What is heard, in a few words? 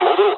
dialogs japanese; War Thunder